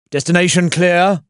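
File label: Voices Units Allied Rifleman move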